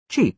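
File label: "cheap", British English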